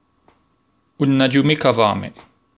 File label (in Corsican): Un aghju micca fame, corse